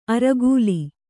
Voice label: "ಅರೆಕಾಲ್", kannada

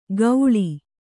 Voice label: "ಗೌಳಿ", Kannada

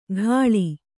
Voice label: "ಘಳಿ", Kannada

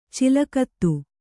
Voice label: "ಚಲಗತಿ", kannada

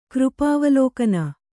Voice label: "ಕೃಪಾವಲೋಕನ", Kannada

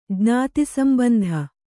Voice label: "ಜ್ಞಾತಿಸಂಬಂಧ", Kannada

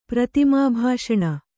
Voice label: "ಪ್ರತಿಮಾಭಾಷಣ", Kannada

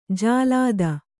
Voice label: "ಜಲಧಿ", Kannada

